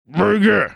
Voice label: saying bring it gruff voice